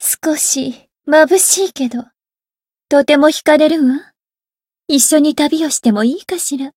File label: story jp